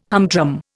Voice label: "humdrum", Indian